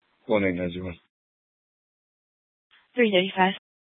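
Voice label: Police Department Dispatch, scanner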